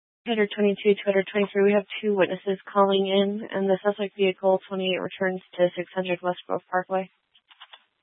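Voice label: Tempe Police Department, scanner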